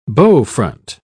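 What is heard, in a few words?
"bowfront", English, British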